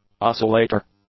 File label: "oscillator", English; nouns